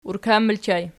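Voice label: "urkan" ergative plural, Archi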